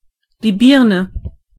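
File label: pear, German voices words; gcompris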